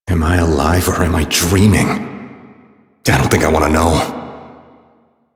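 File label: Citizen Male, English; Warframe; Mall; Citizens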